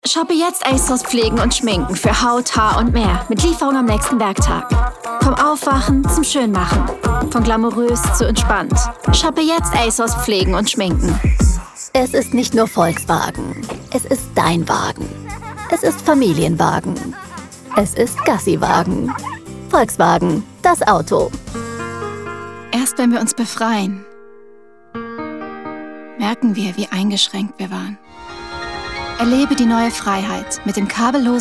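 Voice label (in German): Commercial Showreel German, voiceover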